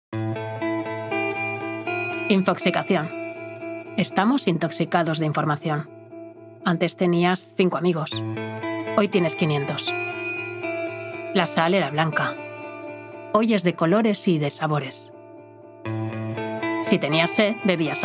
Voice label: documentary, spanish, female